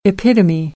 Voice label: "epitome", American English

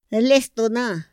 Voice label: "lestona", Otomí; INPI; INALI

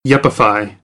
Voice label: "yuppify", American, English; ə - ɪ

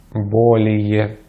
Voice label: "более", Russian; Consonantes en ruso; easypronunciation

